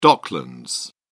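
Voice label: "docklands", British English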